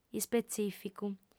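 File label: "ispetzìficu", female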